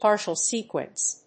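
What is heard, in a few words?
"Partial sequence", English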